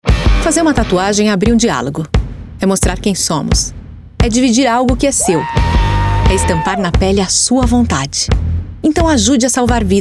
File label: comercial